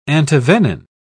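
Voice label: "antivenin", British English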